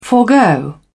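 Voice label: "forgo", British English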